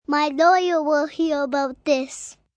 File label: Lawyer Will Here, Adult Phrase, 1 girl, 5 yrs; Talking Sound Effects